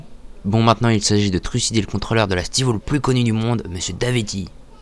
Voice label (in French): ORDRE ESPAGNOL